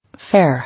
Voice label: "Fare", English